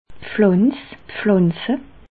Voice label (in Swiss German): "pflanz", alsace